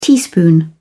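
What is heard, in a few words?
"teaspoon", British English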